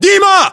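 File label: "dima", battlechatter, Russian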